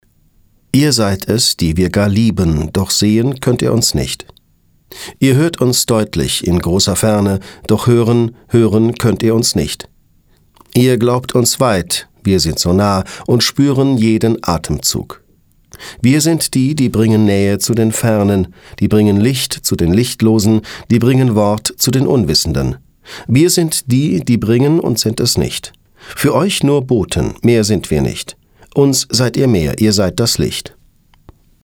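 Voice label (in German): sachlich, Sprecher, Männer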